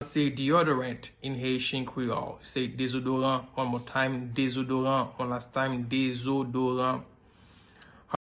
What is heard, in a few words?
Deodorant in Haitian Creole Dezodoran pronunciation